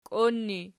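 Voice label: "kion" ergative, Archi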